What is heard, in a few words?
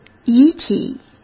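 "遗体", Mandarin Chinese; chinese-flashcards; hsk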